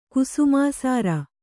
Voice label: "ಕುಸುಮಾಸಾರ", Kannada

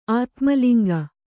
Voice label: "ಆತ್ಮಲಿಂಗ", kannada

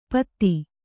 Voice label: "ಪೊತ್ತ", kannada

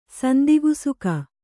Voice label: "ಸಂದಿಗುಸುಕ", kannada

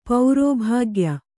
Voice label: "ಪೌರೋಭಾಗ್ಯ", Kannada

